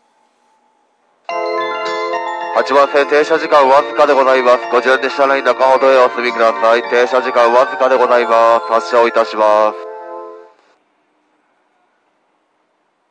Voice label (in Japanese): 発車放送, 新宿駅